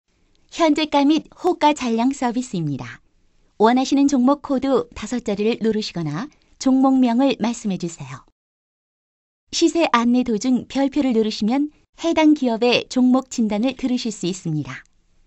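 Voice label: Korean female; ARS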